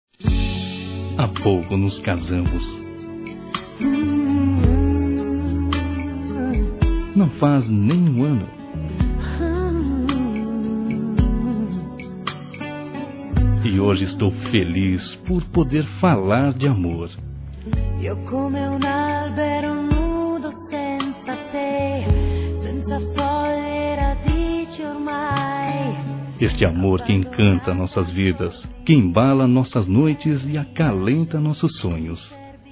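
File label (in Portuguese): DIA DOS NAMORADOS, Masculino; Telemensagens 2017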